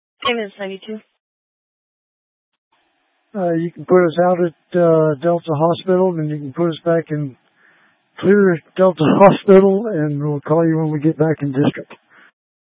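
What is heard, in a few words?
Fire Dispatch, Scanner audio recording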